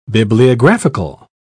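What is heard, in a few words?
"bibliographical", British English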